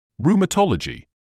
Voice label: "rheumatology", English